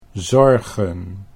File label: "zorgen", Dutch